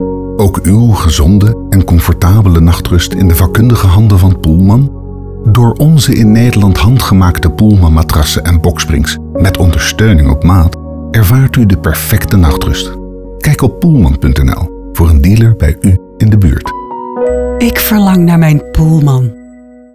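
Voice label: Pullman Commercial, advertisement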